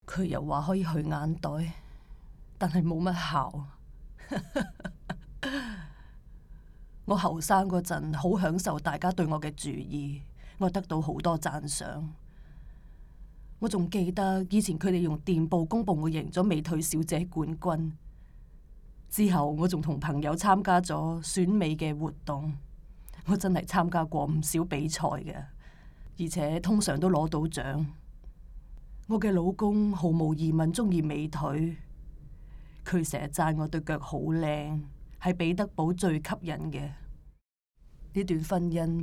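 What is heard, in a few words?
chinese cantonese female narration; voice demos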